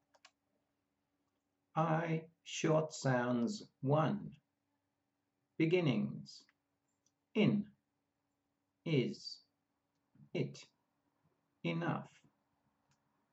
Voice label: I short sounds, pronunciation of australian english